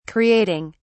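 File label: "creating", English, British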